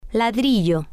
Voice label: "ladrillo", Spanish